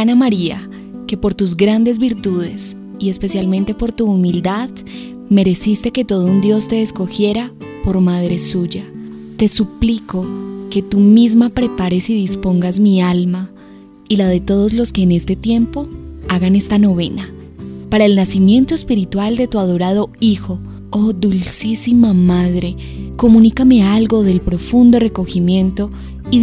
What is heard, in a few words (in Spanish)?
Oración a la virgen Musica fondo; Novena